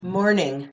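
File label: pronunciation "morning", English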